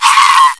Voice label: death, bot voice commands; svencoop